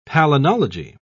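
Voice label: "palynology", British English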